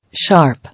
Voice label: "Sharp", English

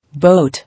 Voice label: "Boat", English

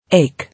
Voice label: "Ache" English

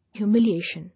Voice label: "Humiliation", English

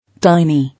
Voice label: "Tiny", English

